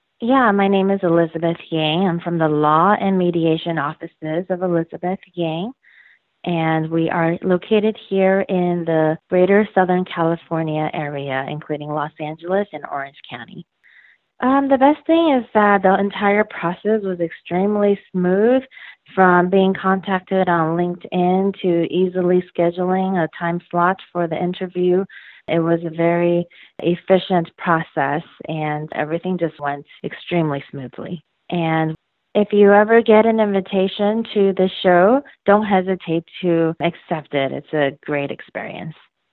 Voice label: Testimonial; Impact Makers Radio